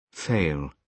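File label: "fail", British English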